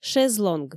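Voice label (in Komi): "шезлонг", Komi, female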